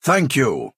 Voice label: Dunmer male thank you

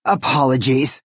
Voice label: Orc female sorry

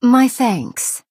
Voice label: Breton female thank you

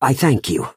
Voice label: Imperial male thank you

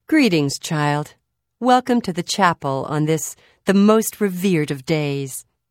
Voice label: Holiday Greeting Nord Female; Unused

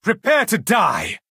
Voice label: male taunt; Altmer

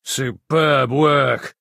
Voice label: Bosmer male nice play

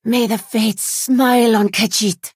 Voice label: female implore; Khajiit

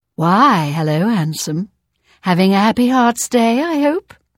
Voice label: Hello Female; Unused Holiday; Imperial

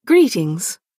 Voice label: Dunmer female hello

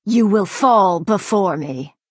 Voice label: Breton female taunt

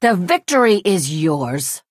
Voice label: Imperial female concede

